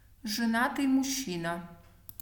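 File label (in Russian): zhenatyj muzhchina, Russian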